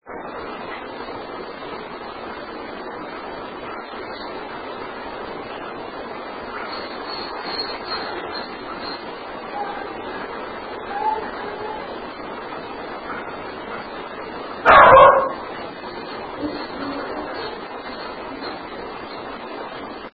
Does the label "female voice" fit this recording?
Yes